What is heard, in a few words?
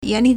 "yan2li4", Chinese